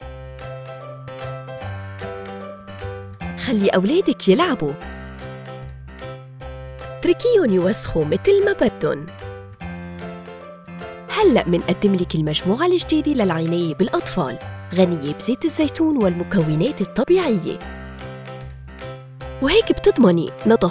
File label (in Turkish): Arapca Kadin Lubnan Arapcasi; lubnan arapcasi seslendirme; kadin sesler